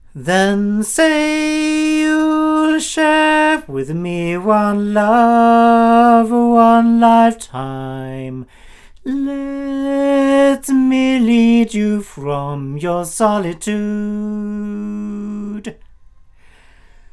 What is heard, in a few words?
omni male, microphones; Lauten; Clarion